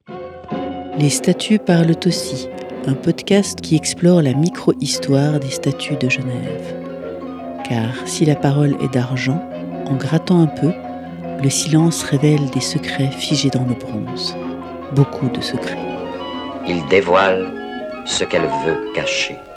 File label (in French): Bande annonce; E2 Les statues parlent aussi